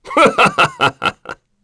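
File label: Vox Happy, English; kingsraid; voices heroes en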